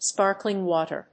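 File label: "sparkling water", English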